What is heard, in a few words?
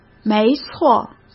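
"没错", Mandarin Chinese; hsk; chinese-flashcards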